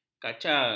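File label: "kacar", Malay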